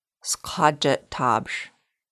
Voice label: Upper Skagit, Lushootseed